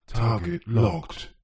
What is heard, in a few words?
science fiction computer voice says "target locked"; zapsplat